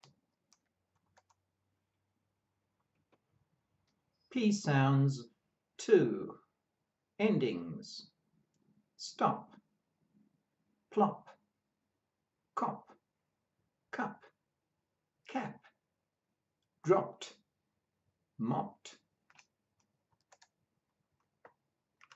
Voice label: P sounds, pronunciation of australian english